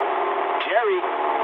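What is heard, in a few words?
English enemy detected mood low; speech; War Thunder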